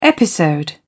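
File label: "episode", British English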